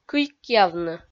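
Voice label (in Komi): "кыйкъявны", Komi, female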